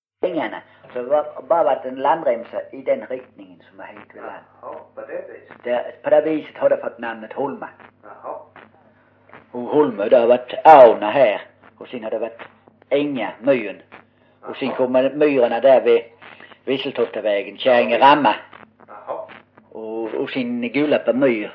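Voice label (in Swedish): Osby Skåne, svenska sagor dialekter; Folkminnen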